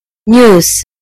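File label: "news", British English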